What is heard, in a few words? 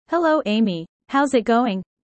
user fast; Animal friends